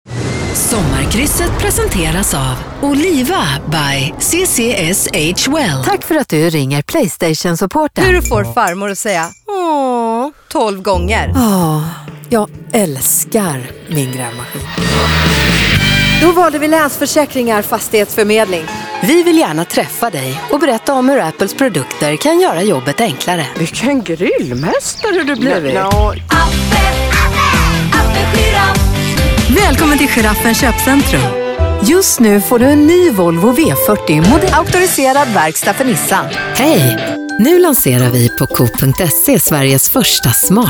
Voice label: swedish commercial